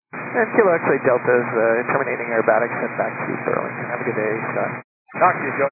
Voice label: 122 925MHz, scanner radio